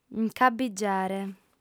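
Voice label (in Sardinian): "nc'abbigiare", female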